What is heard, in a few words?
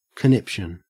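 "conniption", Australian English; pronunciations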